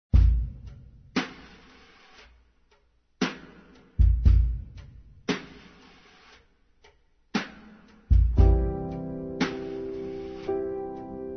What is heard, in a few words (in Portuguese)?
Dia das Mães Para Avó Masculino; Telemensagens; Telemensagens 2017